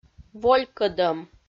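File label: "волькӧдӧм", Komi, female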